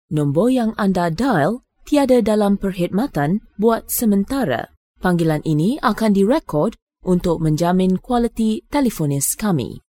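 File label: Malay, Malaysia, female; voice over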